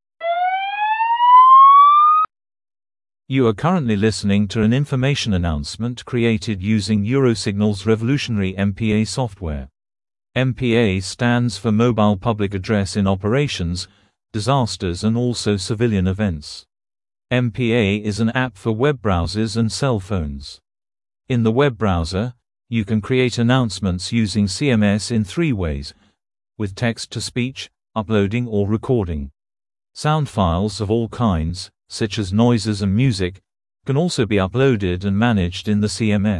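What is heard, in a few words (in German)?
Infodurchsage, English; MPA-App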